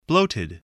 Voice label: "bloated", spelling